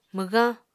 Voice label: "mwgañ", Apatani